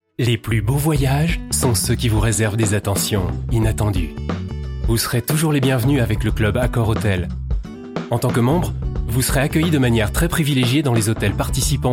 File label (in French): Voix Off Institutionnel; Accor Hotels